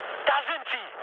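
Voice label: German enemy detected mood med, speech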